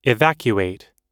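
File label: "evacuate", English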